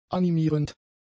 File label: "animierend", German; adjectives